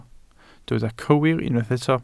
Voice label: "cywir", Welsh